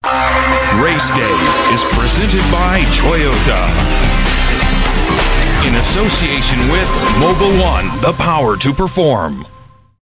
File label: US English, Male; Formula1